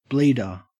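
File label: "bleeder", English, Australian accent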